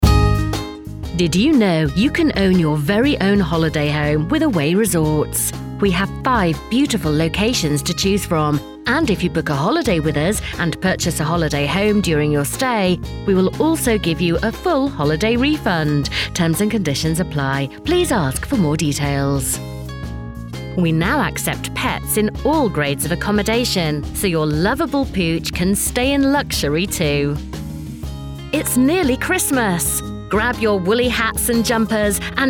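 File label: english british interactive voice response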